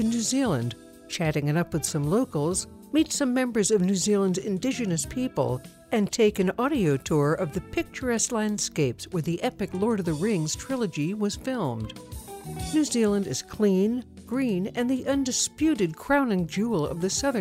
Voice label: PODCAST INTRO